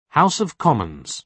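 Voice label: "house of commons", British English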